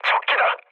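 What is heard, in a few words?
Korean enemy detected mood low, speech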